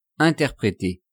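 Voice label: "interpreter", French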